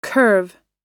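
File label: "curve", English; oxford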